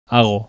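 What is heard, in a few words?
"hago", Spanish, bizkaia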